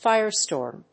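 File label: "firestorm", English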